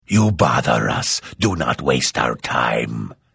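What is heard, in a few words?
Hello, Vo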